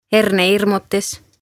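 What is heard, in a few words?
"Hernehirmutis" Estonian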